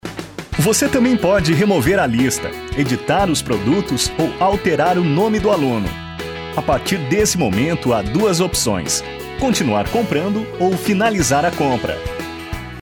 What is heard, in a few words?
e learining